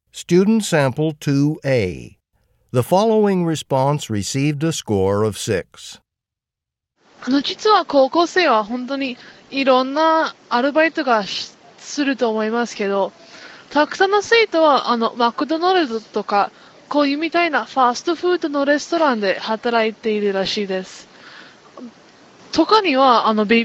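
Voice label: japanese conversation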